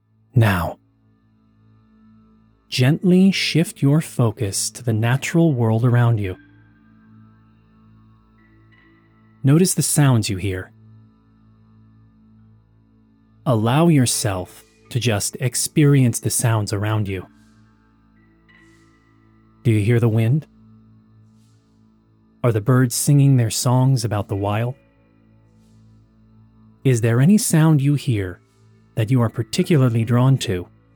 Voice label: Guided Mediatation